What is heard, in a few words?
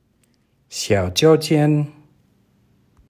xiao zhou tian pronunciation